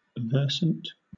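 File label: "versant", British English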